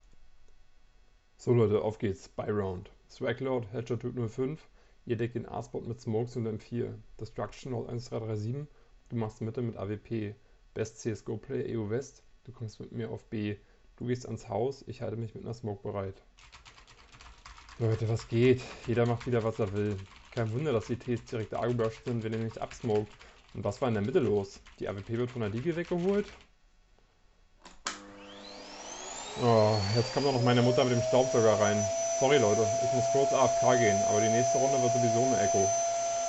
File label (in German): Testaufnahme Wireless Gaming Headset; Trust Thian